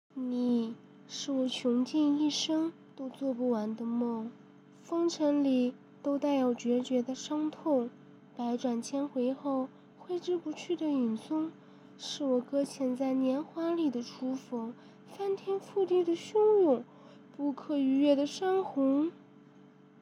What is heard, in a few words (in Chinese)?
女生